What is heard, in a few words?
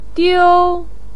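"diu" first tone, Mandarin Chinese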